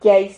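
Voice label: "quiais", Spanish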